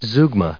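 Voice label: "zeugma", English, us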